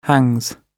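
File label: "hangs", British English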